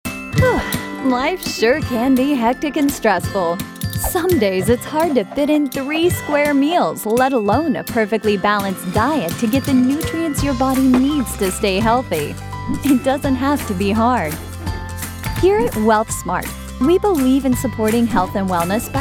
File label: Narration